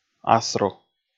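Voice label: "асру", Komi, male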